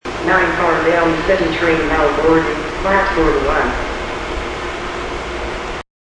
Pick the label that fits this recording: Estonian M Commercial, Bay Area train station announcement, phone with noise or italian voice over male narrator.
Bay Area train station announcement